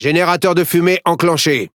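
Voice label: French Speech Consumable Smoke Start; World Of Warships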